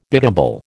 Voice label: "biddable", American

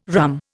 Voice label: "rhumb", Indian

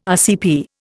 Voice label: "RCP", Indian

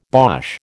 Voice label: "bosh", American

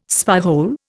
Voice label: "spyhole", Indian

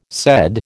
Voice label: "said", American

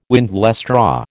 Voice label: "windlestraw", American

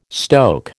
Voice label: "stoke", American

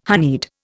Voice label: "honeyed", Indian

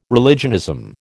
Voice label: "religionism", American

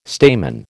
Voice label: "stamen", American

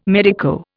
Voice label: "medico", Indian

